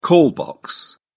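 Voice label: "call box", British English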